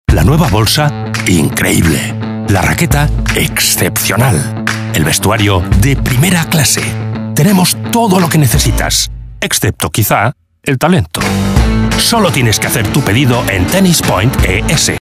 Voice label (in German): werbung, esp